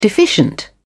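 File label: "deficient", British English, dictionary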